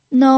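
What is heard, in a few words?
"nou" Mandarin Chinese, first tone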